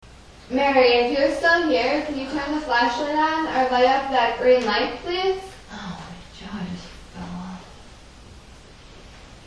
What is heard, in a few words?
voice in bedroom; prospect place